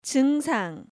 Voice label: "Jeungsang", Korean

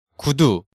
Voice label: "gudu", Korean

